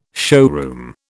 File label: "showroom", British